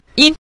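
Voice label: "yin", Mandarin, neutral tone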